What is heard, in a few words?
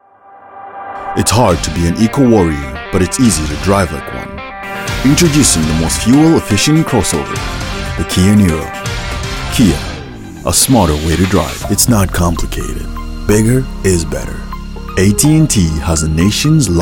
Commercial VO